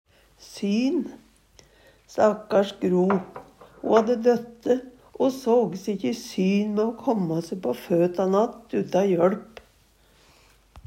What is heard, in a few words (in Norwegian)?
"sjå se syn mæ", Numedalsmål